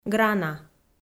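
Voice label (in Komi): "грана", Komi, female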